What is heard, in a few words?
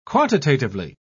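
"quantitatively", English, British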